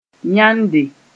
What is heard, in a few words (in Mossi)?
"yãnde", moore